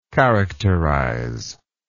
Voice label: "characterizer", English